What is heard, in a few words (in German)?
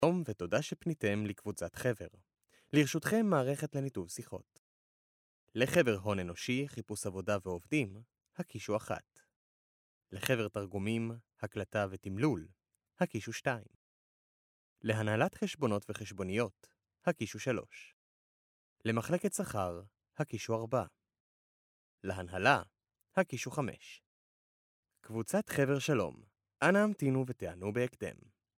Sprecher Native speaker